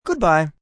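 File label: Goodbye Male, Goodbyes, male; Greetings And Goodbye; VoiceBox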